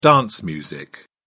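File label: "dance music", British English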